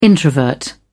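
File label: "introvert", English, uk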